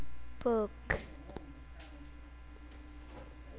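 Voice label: "book", spoken word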